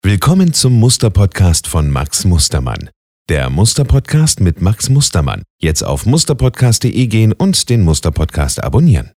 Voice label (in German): PodCast Stimme